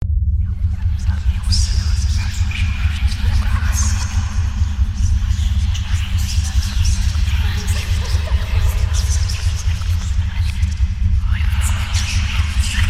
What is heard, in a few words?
Scary whisper